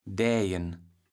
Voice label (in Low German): "däjen", kaevels platt